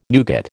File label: "nougat", American